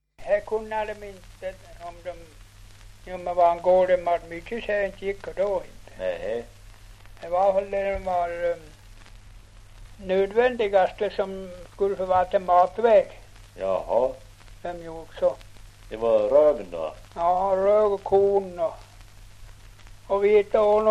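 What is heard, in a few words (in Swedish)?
Ovansjö Gästrikland, Svenska sagor, dialekter; Folkminnen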